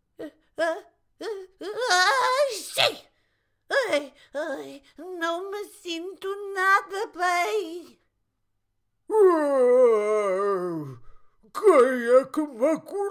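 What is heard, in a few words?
PT European, Characters, Portuguese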